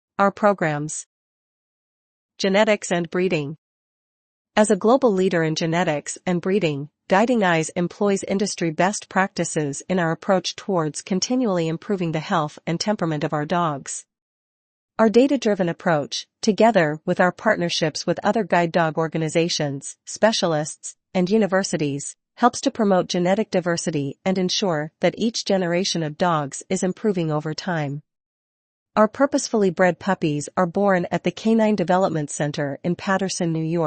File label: voiceover